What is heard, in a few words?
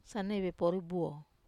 "sanaivepori", Wamesa